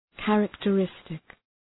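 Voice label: "characteristic", English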